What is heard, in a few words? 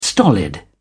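"stolid", English, British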